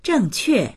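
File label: "zheng4que4", Mandarin Chinese; 正确; 正しい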